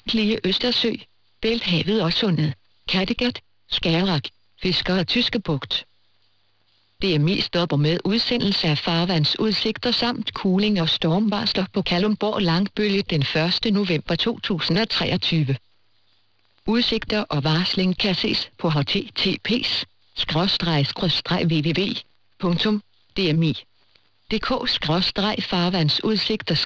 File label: announcement; denmark; DMI